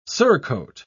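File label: "surcoat", British English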